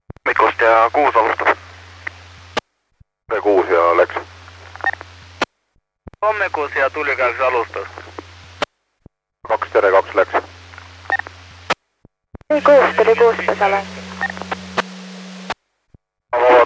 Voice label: Estonian morning on the radio